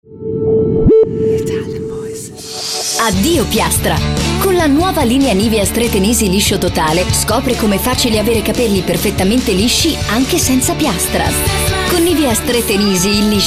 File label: Italian voices, Reassuring Authoritative